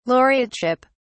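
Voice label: "laureateship", British English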